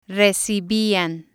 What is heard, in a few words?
"recibian", Spanish